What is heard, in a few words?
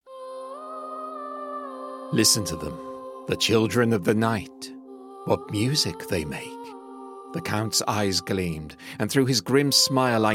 Audiobook